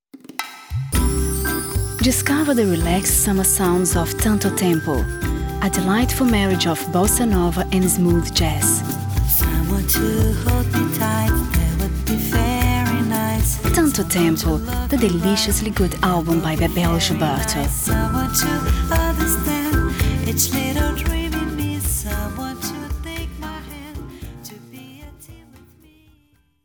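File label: Brazilian English; Portuguese-Brazilian, Male, Home Studio, 30s-50s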